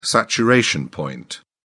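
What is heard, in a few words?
"saturation point", British English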